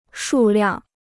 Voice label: "数量", Chinese

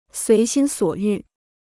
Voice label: "随心所欲", Chinese

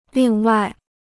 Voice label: "另外", Chinese

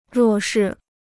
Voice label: "弱势", Chinese

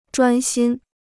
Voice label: "专心", Chinese

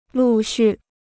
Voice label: "陆续", Chinese

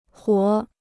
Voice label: "活", Chinese

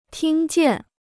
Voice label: "听见", Chinese

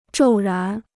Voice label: "骤然", Chinese

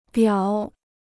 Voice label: "表", Chinese

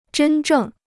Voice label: "真正", Chinese

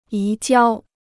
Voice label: "移交", Chinese

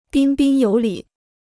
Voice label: "彬彬有礼", Chinese

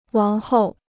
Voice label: "王后", Chinese

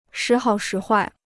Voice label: "时好时坏", Chinese

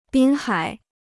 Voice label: "滨海", Chinese

